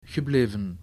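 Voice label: "gebleven", Dutch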